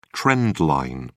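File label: "trend line", British English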